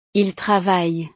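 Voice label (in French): "ils travaillent", French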